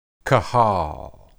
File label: "qahal", Hebrew